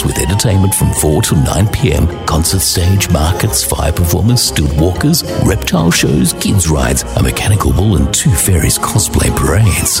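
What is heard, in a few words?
AU English Spooky Halloween, Australian English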